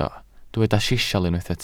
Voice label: "sisial", welsh